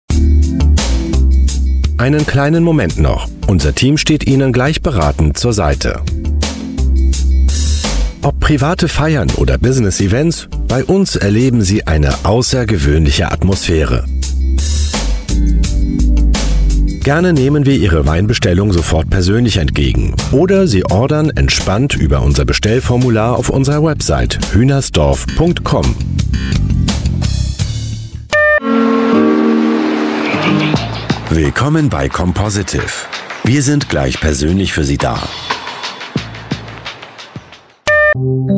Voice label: german voice over male ivr

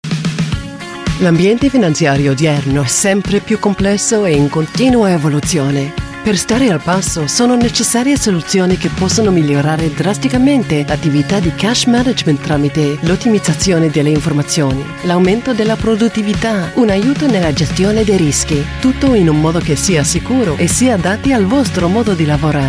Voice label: italian voice over female narrator

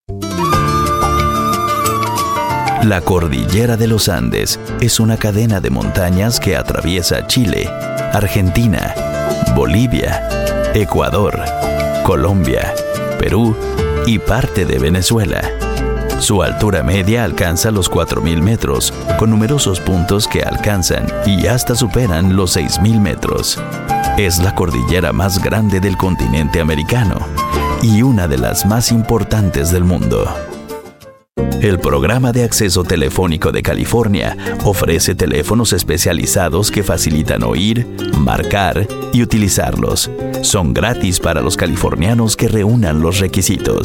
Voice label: spanish voice over male documentary

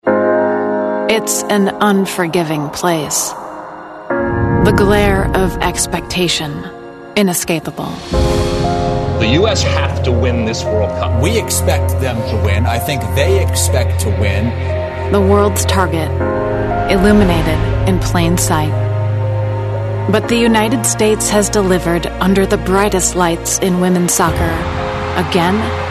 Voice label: english american voice over female commercial

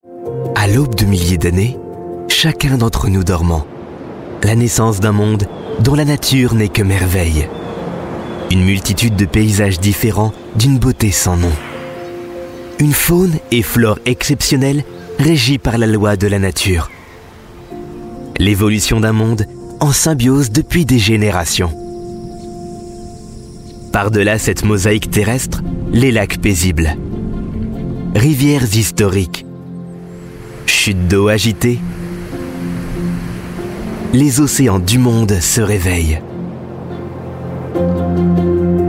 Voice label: french voice over male documentary

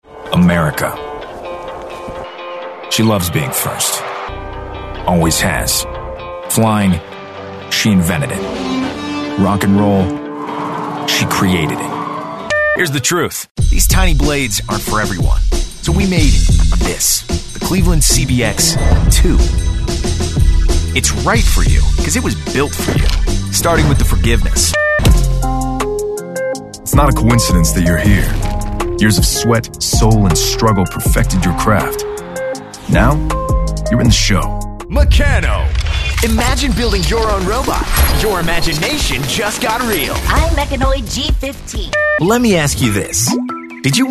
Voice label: english american voice over male

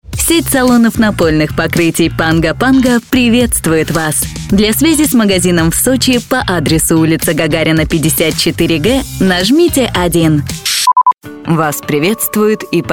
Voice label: russian voice over female ivr

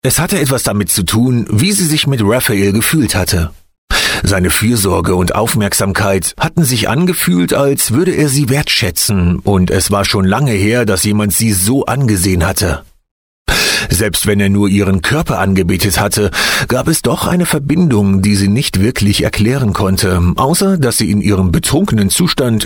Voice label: german voice over male audiobook

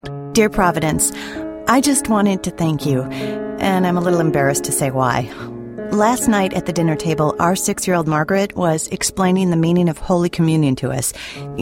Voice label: english american voice over female narrator

